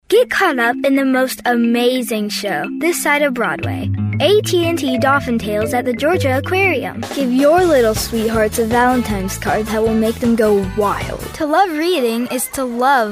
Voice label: Commercial KID